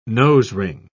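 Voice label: "nosering", British English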